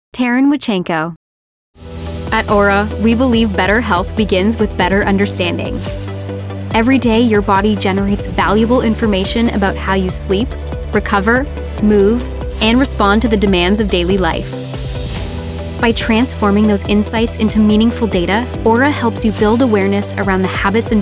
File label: corporate explainer